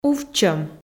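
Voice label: "увтчӧм", Komi, female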